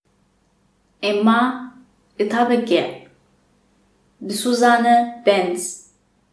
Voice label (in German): tigrinisch